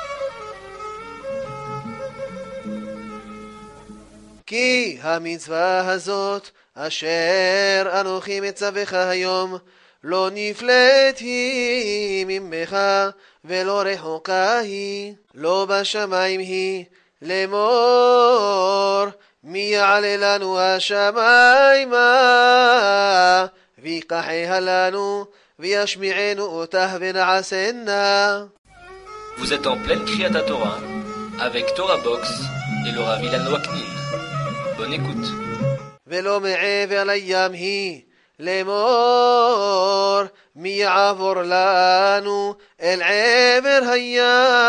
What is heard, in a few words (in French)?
Paracha Nitsavim 6e montee marocain; devarim deuteronome